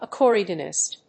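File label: "accordionist", English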